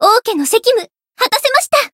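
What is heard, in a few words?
Voice win, Japanese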